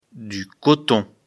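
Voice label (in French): "coton", French; afrikalan; lecture fr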